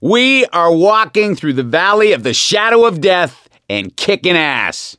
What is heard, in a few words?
hurrah, survivor voice; gambler